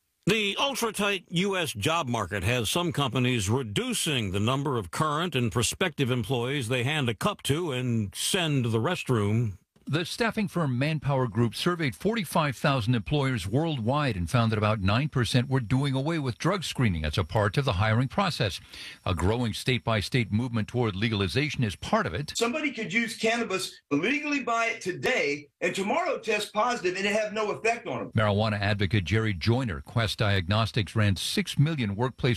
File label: Radio; ABC Radio; Audio only